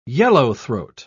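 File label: "yellowthroat", British English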